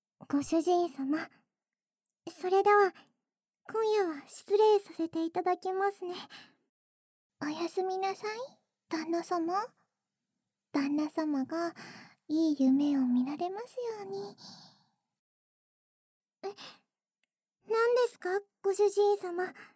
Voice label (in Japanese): 奴隷姉妹のダブル耳舐め, ASMR